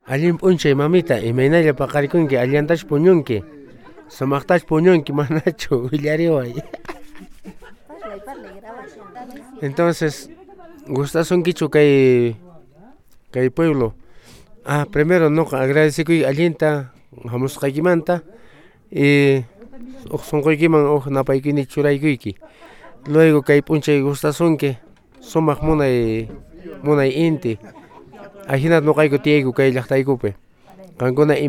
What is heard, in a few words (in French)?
En Quechua